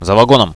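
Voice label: behind the wagon, Russian, radio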